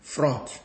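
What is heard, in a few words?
"front", Nigerian Pidgin, male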